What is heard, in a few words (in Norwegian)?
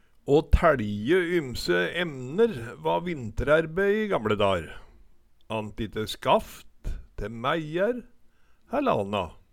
tæLje, Numedalsmål